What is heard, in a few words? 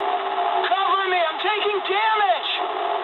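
English aircraft damaged mood med; War Thunder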